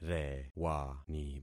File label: "rewani", concat syllables; Affixing experiment